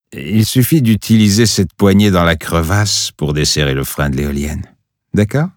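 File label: French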